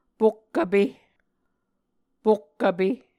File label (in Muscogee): "pokk vpe", Muscogee; Mvskoke Words with Repeated Consonants; Onvkv Ohhvyayices; A Dictionary of Creek/Muskogee; SIL International